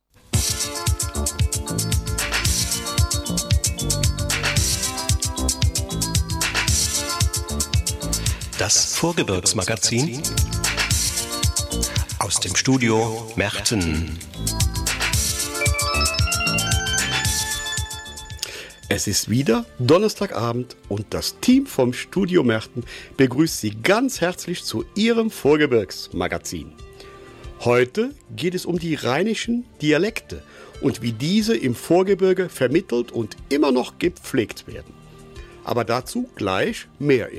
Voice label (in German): Mundart; Studio Merten